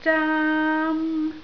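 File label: "zaam1", Cantonese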